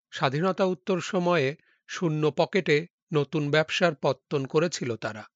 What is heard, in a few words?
Male